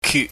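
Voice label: "kyut", Cantonese, mid level tone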